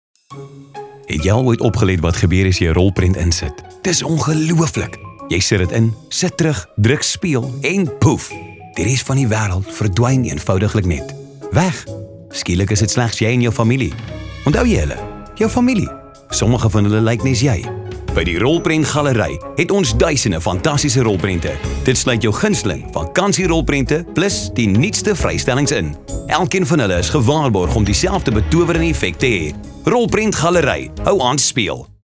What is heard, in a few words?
Medium Sell Afrikaans